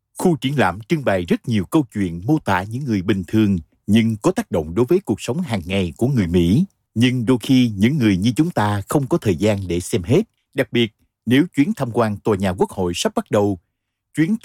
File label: Vietnamese male; Foreign language voice over